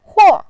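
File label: "huo", Mandarin, fourth tone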